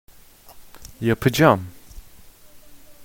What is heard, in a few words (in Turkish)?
"yapacam", Turkish